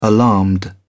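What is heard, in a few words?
"alarmed", British English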